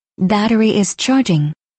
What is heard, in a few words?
battery charging activated voice tone